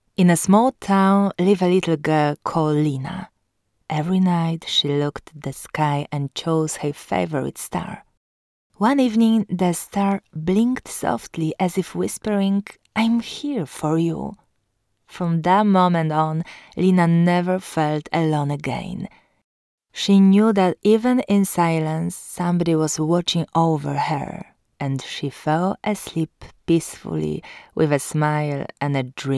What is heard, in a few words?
dubbing